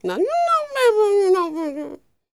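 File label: dialog, female spoken; ILIO; Vocal Planet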